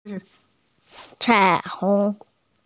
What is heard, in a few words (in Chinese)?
"cai3hong2"